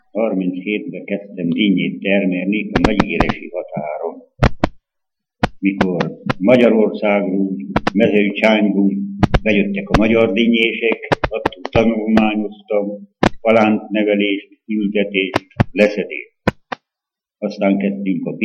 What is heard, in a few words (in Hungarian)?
nyelvjaras gyujtesek